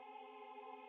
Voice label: lower loop voice